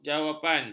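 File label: "jawapan", Malay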